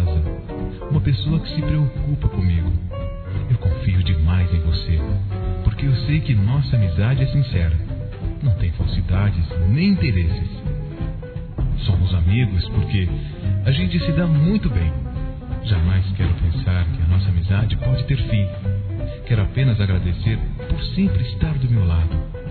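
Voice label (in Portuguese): Para amiga, masculino, telemensagens; Amizade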